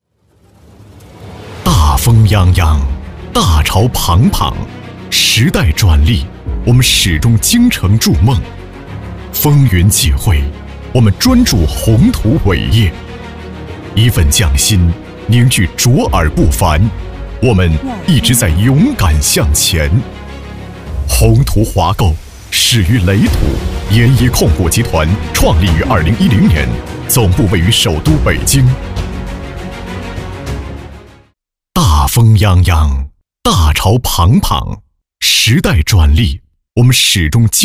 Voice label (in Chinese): 男 专题 大气激情; 魅力永平